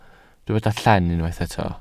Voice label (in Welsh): "llen", Welsh